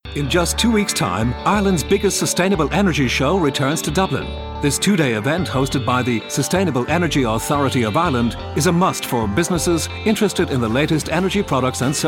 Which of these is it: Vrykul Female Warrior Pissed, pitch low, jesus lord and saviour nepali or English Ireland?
English Ireland